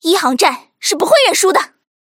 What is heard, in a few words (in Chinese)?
舰R中文 break